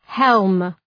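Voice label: "helm", English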